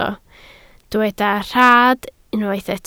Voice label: "rhad", Welsh